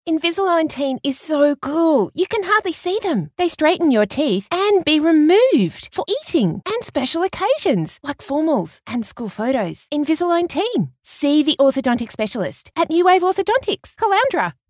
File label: Thrilled; NewWaveOrtho